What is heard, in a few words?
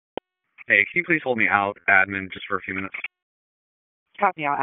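Wake County EMS Dispatch, p25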